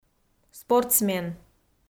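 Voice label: "спортсмен", Komi, female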